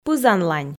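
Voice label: "пызанлань" Komi, female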